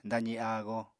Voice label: "dazi áago", Apatani

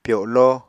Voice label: "pyolo", Apatani

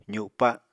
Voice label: "zupa", Apatani

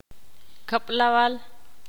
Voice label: ixil; doce

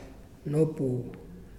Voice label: Heart, Muyuw; Lae Unitech

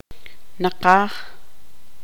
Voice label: tzutujil; cerca

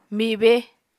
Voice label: "mibe", Apatani

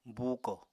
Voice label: "buukò", Apatani

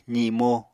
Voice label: "zimo", Apatani

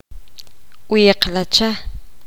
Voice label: poqomam; cerca

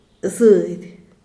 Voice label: "zed", Diaz Ordaz; sal

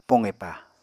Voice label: "poqepa", Apatani

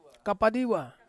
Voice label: "kapadiwa", Ansus; house lizard

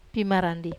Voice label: "pimarandi", Wamesa

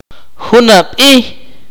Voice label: "last year", Akateko

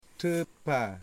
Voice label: "moon", Wamut

